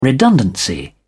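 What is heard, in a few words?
"redundancy", English, British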